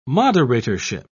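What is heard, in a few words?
"moderatorship", British English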